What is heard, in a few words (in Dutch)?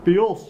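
"Pios", dialect; Houweel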